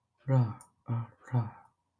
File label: Voiceless retroflex lateral flap